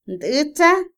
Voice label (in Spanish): odiar, Otomí, mujer; Emociones; INALI